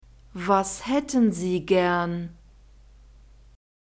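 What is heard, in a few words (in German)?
"was hätten sie gern", German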